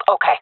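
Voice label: agree, jungle female; player vo jungle fem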